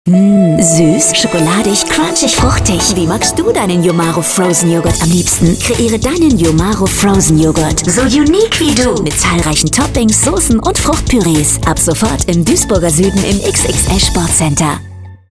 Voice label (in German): radiospot; yomaro